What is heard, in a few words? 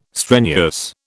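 "strenuous", British English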